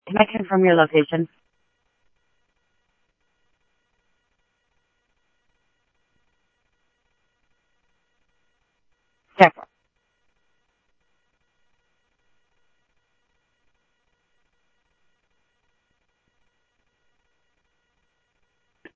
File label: Police, scanner audio trunk; H-Scottsdale